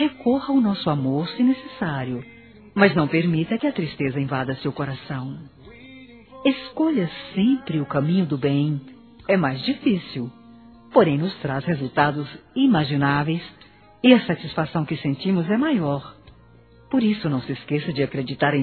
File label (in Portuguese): ANIV. FILHA PLURAL F